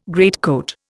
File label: "greatcoat", Indian